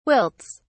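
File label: "wilts", British English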